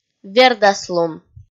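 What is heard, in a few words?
"вердаслун", Komi, female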